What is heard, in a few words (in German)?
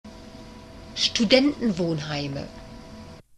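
"Studentenwohnheime", German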